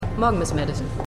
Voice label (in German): Empfangsdame; Stimmproben; For All Mankind